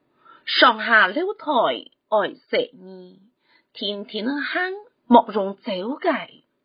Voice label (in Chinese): (海陸)客語生活常規